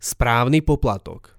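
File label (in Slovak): "spravny poplatok", Slovak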